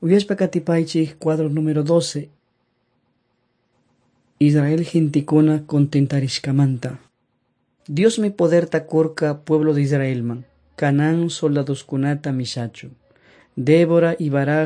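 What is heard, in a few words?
quichua chimborazo, drama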